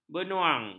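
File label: "benuang", Malay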